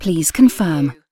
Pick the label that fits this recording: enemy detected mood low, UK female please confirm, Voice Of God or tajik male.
UK female please confirm